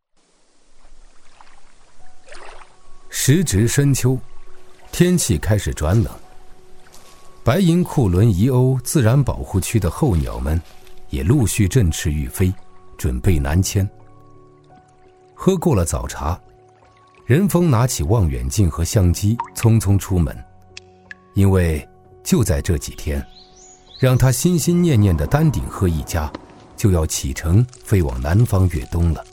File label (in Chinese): 男 记录片 自然故事; 中英双语; 大气浑厚; 丹顶鹤一家